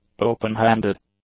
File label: "open-handed", English; adjectives